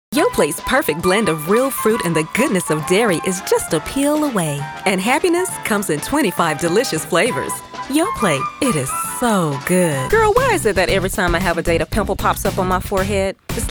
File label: African American